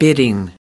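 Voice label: "bidding", US English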